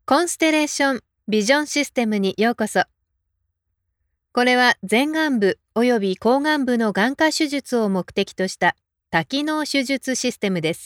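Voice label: Japanese; Constellation Vision System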